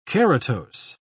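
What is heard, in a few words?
"keratose", British English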